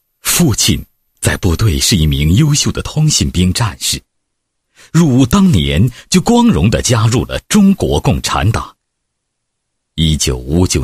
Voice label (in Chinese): 男-人物-爸爸妈妈故事, 配音案例